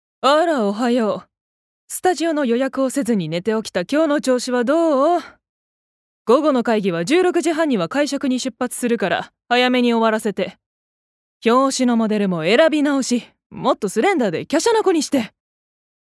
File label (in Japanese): 外画